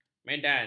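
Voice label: "medan", Malay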